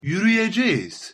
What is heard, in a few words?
"yuruyecegiz", Turkish